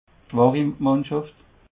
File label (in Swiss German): "schwarimannschaft", alsace